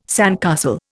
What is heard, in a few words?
"sandcastle", Indian